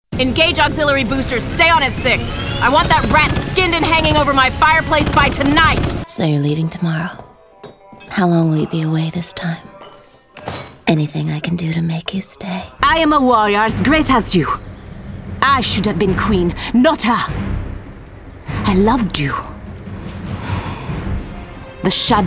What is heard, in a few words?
Interactive, voiceover